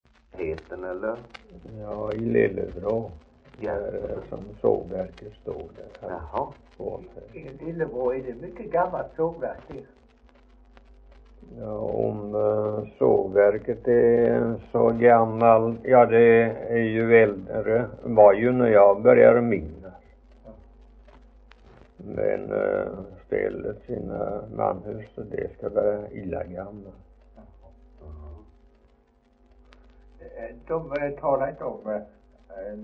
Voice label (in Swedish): Idala, dialekter; svenska sagor; Folkminnen